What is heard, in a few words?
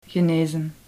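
"genezen", Dutch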